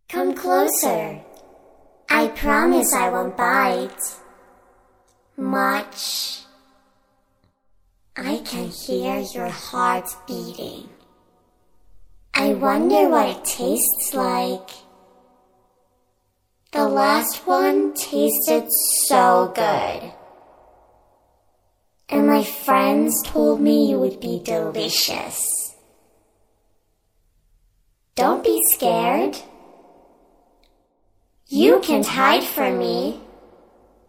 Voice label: creepy zombie child talking